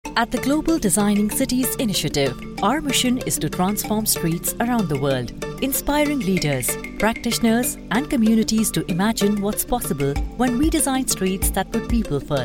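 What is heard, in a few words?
Indian corporate English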